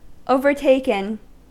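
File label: "overtaken", American English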